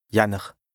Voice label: "Janner", lexique indispensable bas rhin, Alsace; janvier

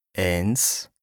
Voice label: "eins", lexique indispensable bas rhin, Alsace; 1

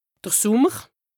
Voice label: "d'r Sùmmer", lexique indispensable haut rhin, Alsace; été

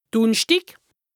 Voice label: "Dùngerschdi, Dùnnerschdàà", lexique indispensable haut rhin, Alsace; Saint-Louis

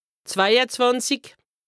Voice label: "zwei-e-zwànzich", lexique indispensable haut rhin, Alsace

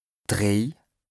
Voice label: "dréi", lexique indispensable bas rhin, Alsace; 3

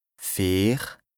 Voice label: "vier", lexique indispensable bas rhin, Alsace; 4

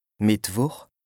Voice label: "Mìttwùch", lexique indispensable bas rhin, Alsace; mercredi

